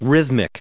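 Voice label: "rhythmic", American English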